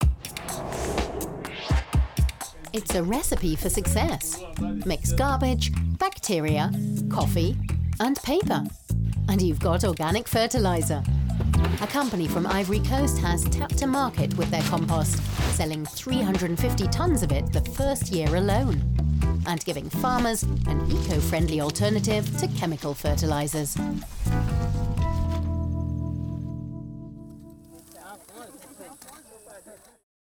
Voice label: voice artist demo teaser advert